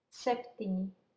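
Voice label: "septini", Latvian, female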